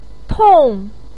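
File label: "tong", Mandarin pinyin, fourth tone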